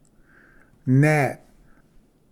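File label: "gnat", English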